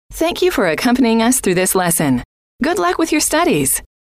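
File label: thank You For Accompanying Us Through, female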